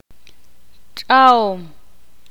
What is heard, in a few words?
ixil; lavar